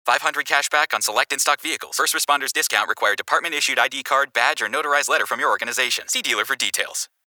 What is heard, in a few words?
Disclaimer, Automotive